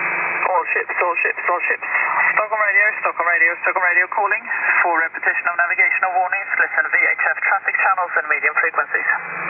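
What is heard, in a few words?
2182 radio, 2182kHz, Marine Audio HF; Stockholm R, May 2008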